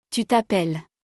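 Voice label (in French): "tu t appelles", French; conjugaison; le verbe s'appeler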